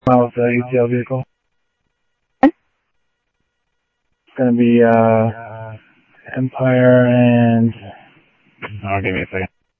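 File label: Sheriff East County, scanner audio trunk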